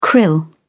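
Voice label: "krill", British English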